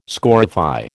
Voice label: "scorify", American